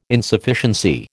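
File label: "Insufficiency", American English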